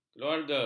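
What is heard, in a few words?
"keluarga", Malay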